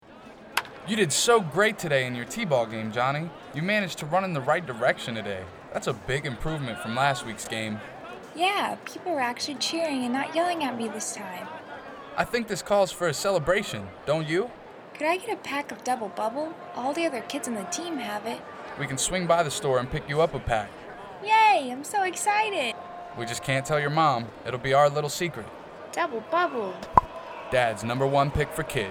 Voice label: Radio Ad; Bubble Dubble